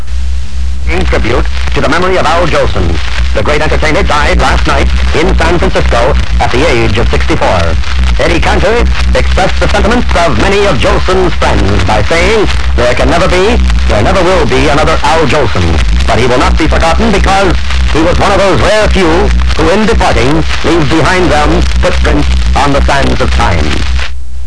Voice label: death announcement